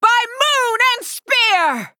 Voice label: Female Night Elf Play